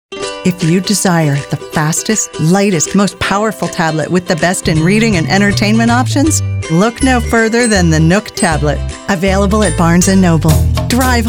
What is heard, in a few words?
Commercials